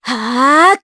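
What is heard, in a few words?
Vox Casting, Japanese; kingsraid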